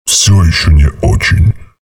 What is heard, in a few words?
chatter neutral; visor